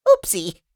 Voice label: Jolly elf oopsie; christmas